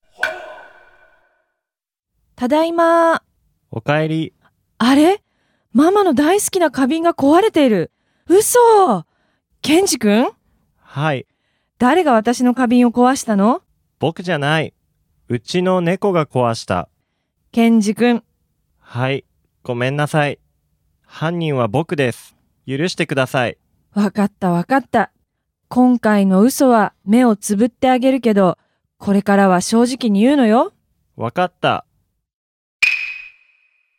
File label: dialog; JapanesePod101; Beginner Season 1